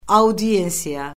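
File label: "audiensiya", Azerbaijani; Orfoqrafiya Lüğəti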